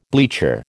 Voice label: "bleacher", American